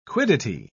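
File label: "quiddity", British English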